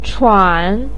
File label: "chuan3", Chinese pinyin voice